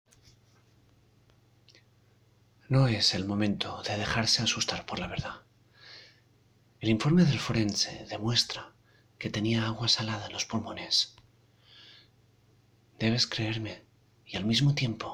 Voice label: Muestra suspense

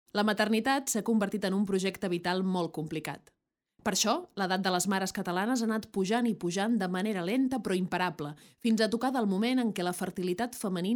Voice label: documental catalan

